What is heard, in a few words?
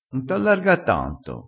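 "allarga", Italian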